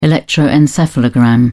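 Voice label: "electroencephalogram", British English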